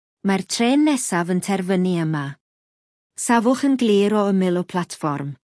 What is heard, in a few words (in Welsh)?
announcement terminating welsh; operator